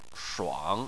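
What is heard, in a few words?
"shuang", Chinese, third tone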